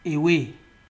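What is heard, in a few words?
"away", Nigerian Pidgin, male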